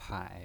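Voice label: "pai" third tone, pinyin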